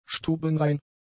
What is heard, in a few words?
"stubenrein", German; adjectives